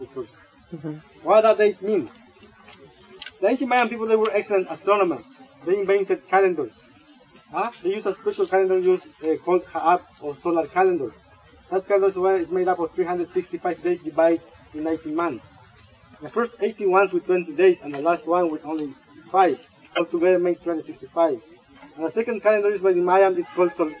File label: sounds like liar in my an women voice